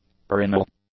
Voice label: "perineal", english; adjectives